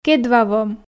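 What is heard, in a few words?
"кедвавом", Komi, female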